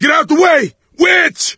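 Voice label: witch chasing, voice, male; Left 4 Dead 2; coach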